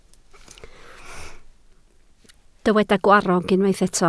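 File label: "gwarrog" Welsh, spoken word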